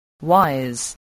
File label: "wise", voice, english